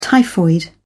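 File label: "typhoid fever", British English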